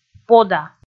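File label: "пода", Komi, female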